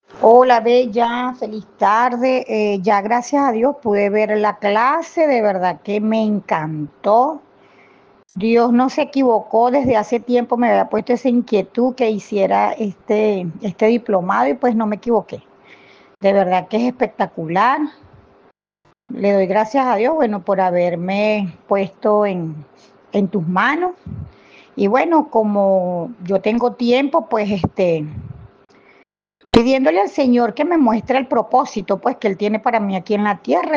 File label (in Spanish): Testimonio